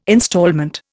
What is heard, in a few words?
"instalment", Indian